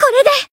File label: attack, jp; saki